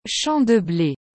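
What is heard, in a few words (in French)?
"champ de ble", French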